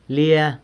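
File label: "lia", San Lucas Quiaviní Zapotec; miss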